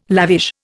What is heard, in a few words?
"lavish", Indian